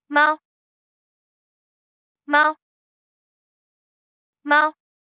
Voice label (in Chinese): 貓, Cantonese; Traditional Chinese